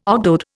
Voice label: "ogdoad", Indian